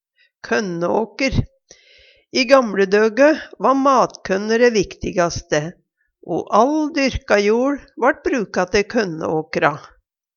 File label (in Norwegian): "kønnåker", Numedalsmål